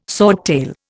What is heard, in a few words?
"swordtail", Indian